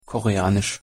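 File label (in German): "koreanisch", German; pronunciation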